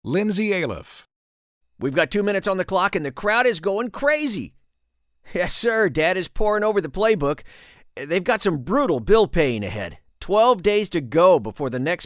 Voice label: sports announcer